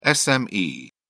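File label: "sme", English, British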